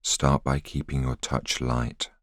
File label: voiceover